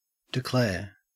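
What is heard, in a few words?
"declare", Australian English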